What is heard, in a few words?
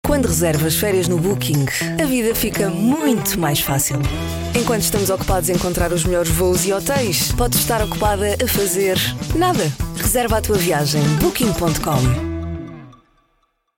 Commercial